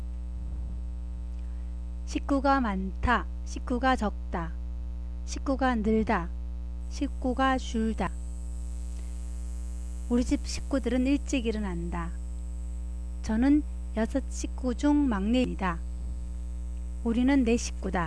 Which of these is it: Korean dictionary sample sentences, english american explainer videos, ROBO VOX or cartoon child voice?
Korean dictionary sample sentences